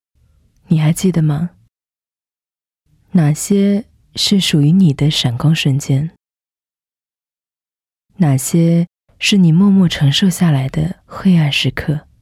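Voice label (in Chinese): 独白第一人称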